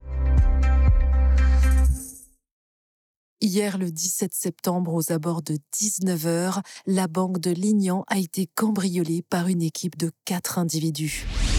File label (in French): VO French Fiction TV News Presenters